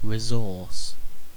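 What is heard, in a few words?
"resource", British English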